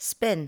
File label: "spin", english